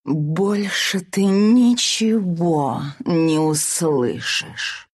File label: kill, ru